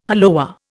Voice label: "hollowware", Indian